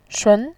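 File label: "shun", Mandarin Chinese, second tone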